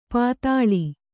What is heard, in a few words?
"ಪಾತಾಳಿ", kannada